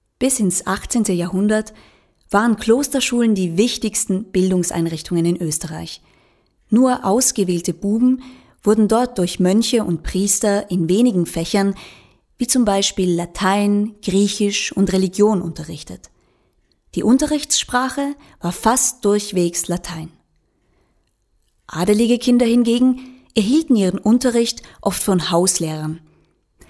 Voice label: Hoerprobe Kinderfilm

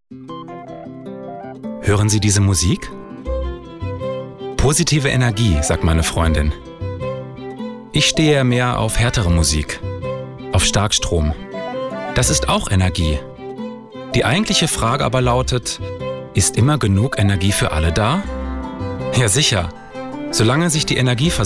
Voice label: Werbung

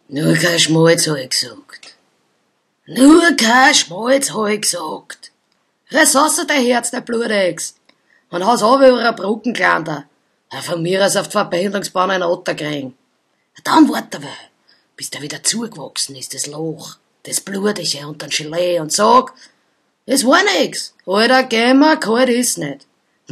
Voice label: Dialekt Wienerisch

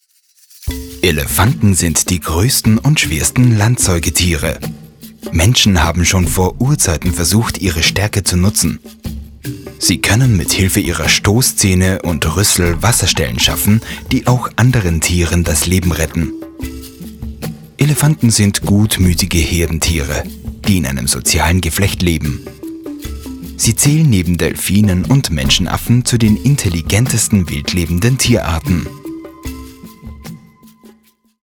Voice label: Sprecherdemo Doku

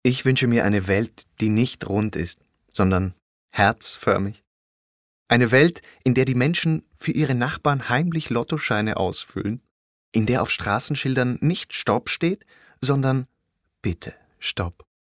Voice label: Neutral lieb

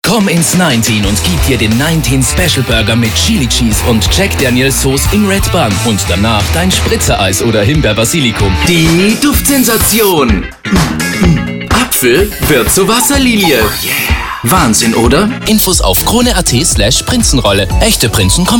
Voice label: Werbung DE

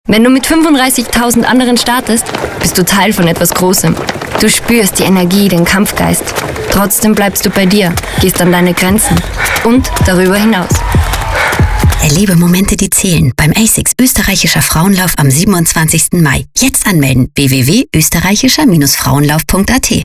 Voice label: Werbung DE

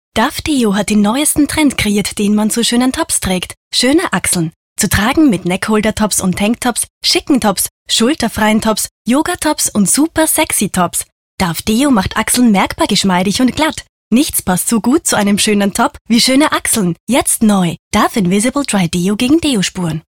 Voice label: werbung; dove

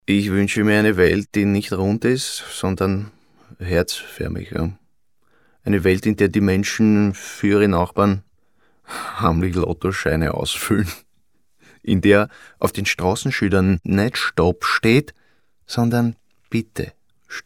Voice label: Neutral dialekt

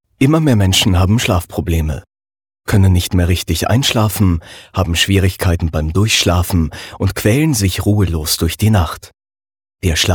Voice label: Sprechprobe ERKLAERVIDEO

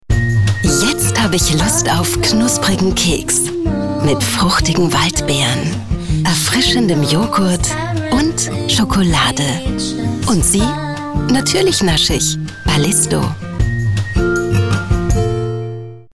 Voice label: Werbung

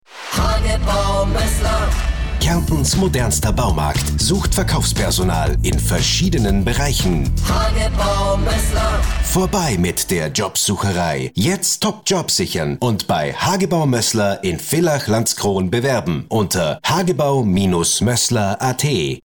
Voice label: Werbespot

